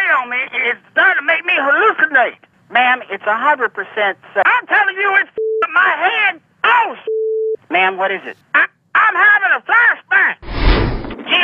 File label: 911 CALL SPRAYING